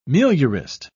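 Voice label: "meliorist", British English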